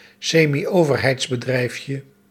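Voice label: "semioverheidsbedrijfje", Dutch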